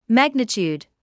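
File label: "magnitude", British English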